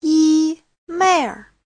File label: "yi1meir4", Chinese